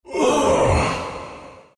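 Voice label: pain, Chinese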